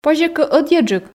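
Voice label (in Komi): позьӧ кӧ ӧдйӧджык, Komi, female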